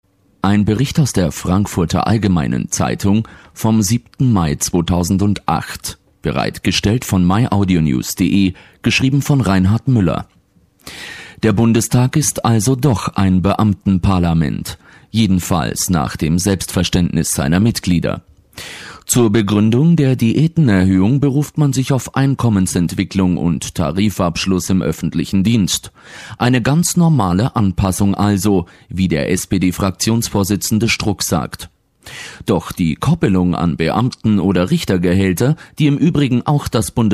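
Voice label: german male narration; voice demos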